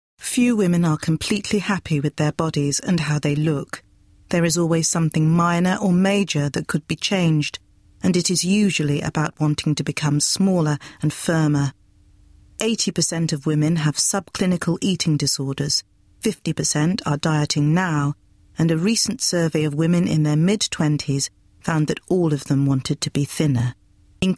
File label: narr